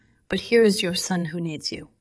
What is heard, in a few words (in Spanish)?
Voice Acting es